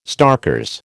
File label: "starkers", American